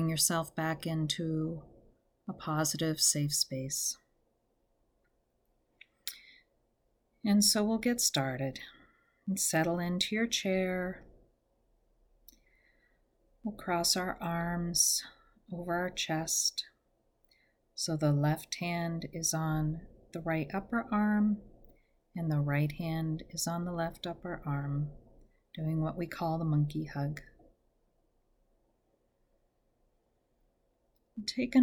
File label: Guided Visualization